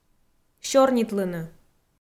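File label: "сёрнитлыны" Komi, female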